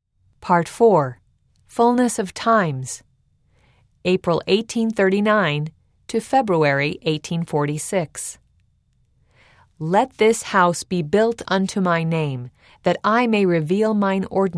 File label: female voice; part 4 fullness of times; eng